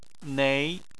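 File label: "nei", Chinese, second tone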